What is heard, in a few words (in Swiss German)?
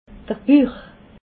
"buch", alsace